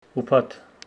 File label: Homshetsi friday